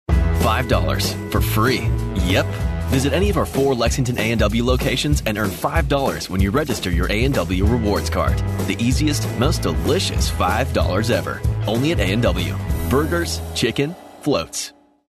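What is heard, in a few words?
English US male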